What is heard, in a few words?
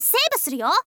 "save suru yo", info girl voice